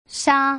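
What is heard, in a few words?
"sha", Mandarin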